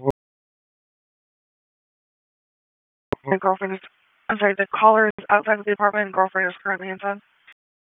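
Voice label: Raleigh Police Northeast District Dispatch; wake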